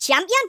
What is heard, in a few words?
"excellent", Geordie; speech